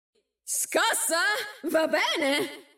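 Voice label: Italian; 探奇（守望先锋2）; 终极技能; Scossa? Va bene!; 意大利语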